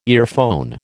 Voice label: "earphone", American

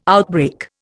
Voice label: "outbreak", Indian

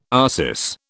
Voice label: "arsis", British

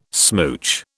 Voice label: "smooch", British

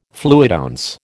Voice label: "fluidounce", American

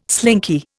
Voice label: "slinky", Indian

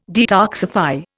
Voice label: "detoxify", Indian

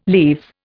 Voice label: "leaves", Indian

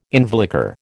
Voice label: "involucre", American

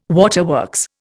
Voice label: "waterworks", Indian

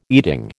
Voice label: "eating", American

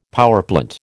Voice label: "powerplant", American

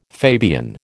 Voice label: "Fabian", American

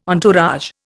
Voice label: "entourage", Indian

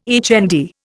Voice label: "HND", Indian

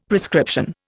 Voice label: "prescription", Indian; Dictionary

